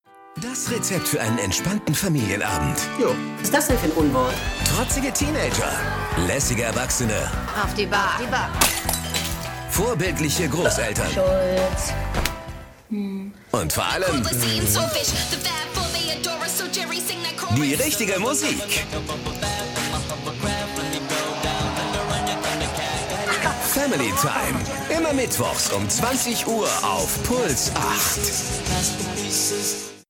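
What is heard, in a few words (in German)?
Trailer, Sprecher, Männer; Puls8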